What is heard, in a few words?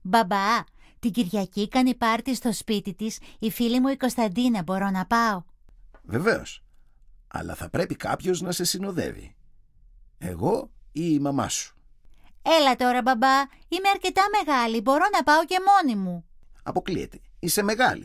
dialog